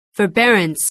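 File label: "forbearance", English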